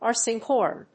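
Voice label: "ARCING HORN", English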